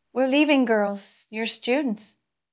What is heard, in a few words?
Voice Acting es